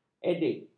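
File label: "edik", Malay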